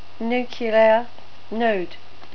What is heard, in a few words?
"nuclear", NZ English